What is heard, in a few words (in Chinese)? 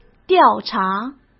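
"调查", Mandarin; chinese-flashcards; hsk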